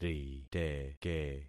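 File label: "riteke", concatenated syllables; Affixing experiment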